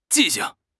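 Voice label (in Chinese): "记性", Chinese; HSK6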